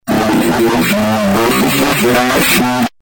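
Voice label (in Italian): "più pose", Italian; Più pose timbro qui Sant'Erasmo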